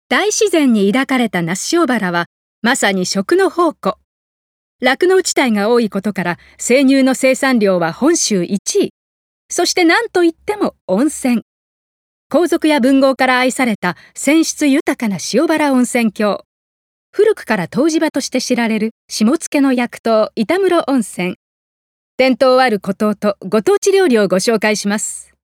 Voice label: 紀行番組ナレ

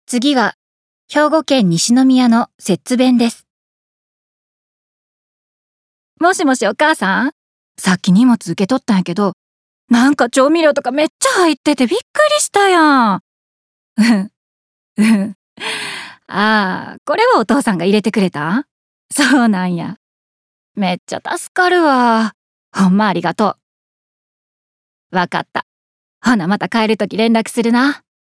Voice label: 方言

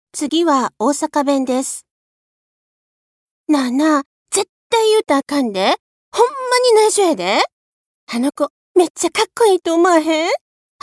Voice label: 方言

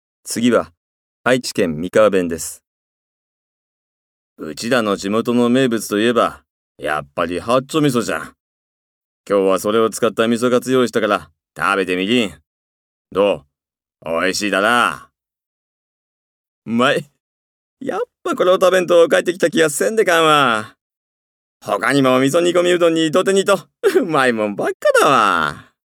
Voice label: 方言

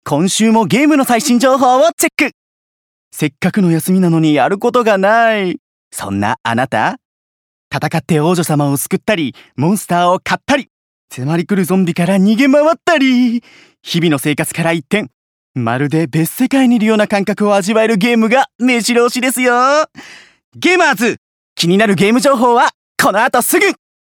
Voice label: 番宣

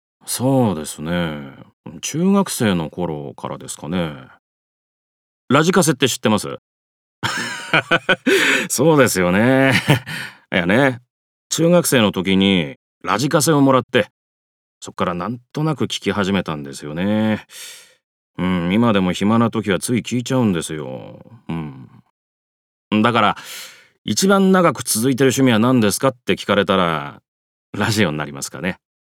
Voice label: ナチュラル語り

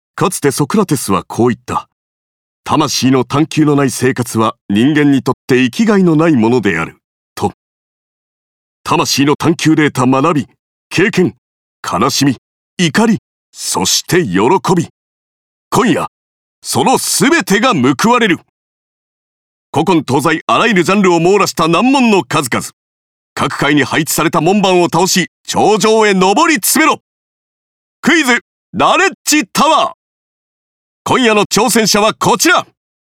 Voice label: クイズ番組番宣

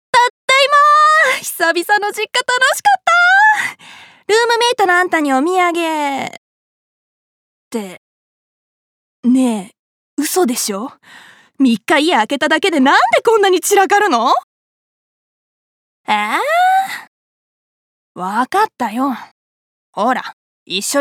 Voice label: 快活大学生

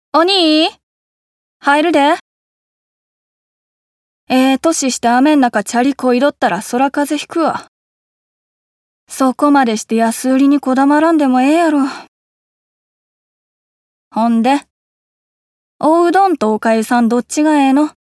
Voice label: 大阪弁

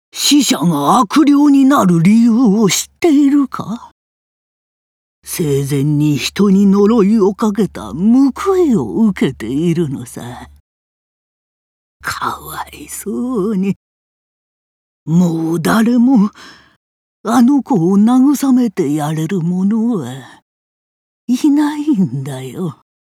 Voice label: 千里眼老人

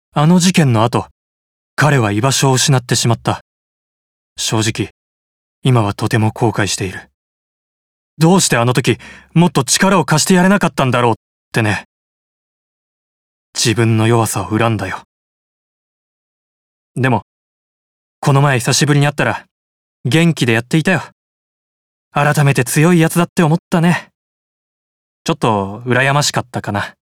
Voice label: 刑事30代

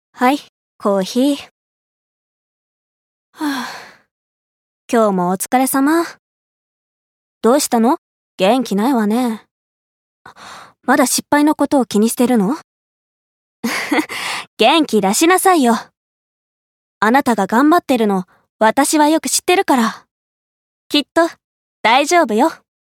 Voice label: 後輩を励ます

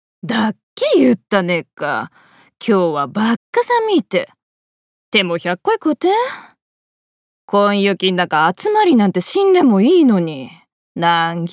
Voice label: 方言

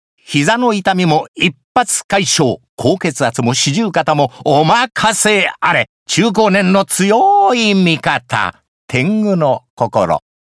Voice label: 薬CM

